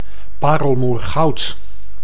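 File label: "parelmoergoud", Dutch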